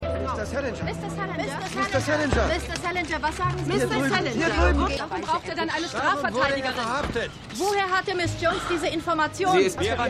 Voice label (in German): ReporterInnen Menge; Jessica Jones